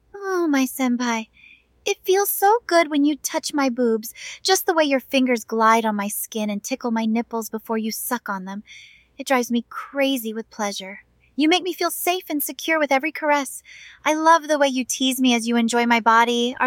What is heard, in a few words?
synthesized audio